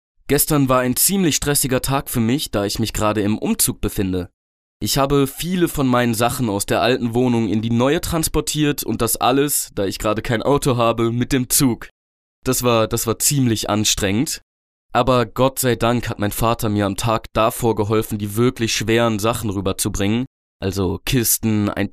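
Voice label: German, male; lektoring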